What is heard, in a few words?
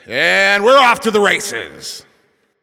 Game Start, Announcer